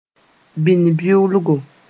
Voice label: "bɩnbɩʋlgo", moore; kind of termite